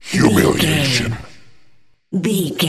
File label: announcer voice classic fps style humiliation